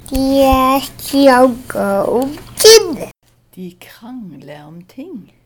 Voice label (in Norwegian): hyponasalitet